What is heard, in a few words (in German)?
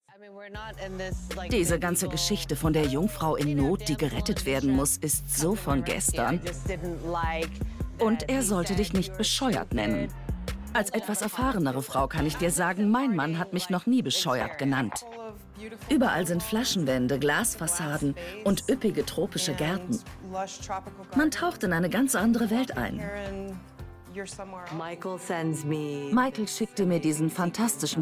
Dokusoap